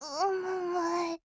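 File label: LOW MOOD, Japanese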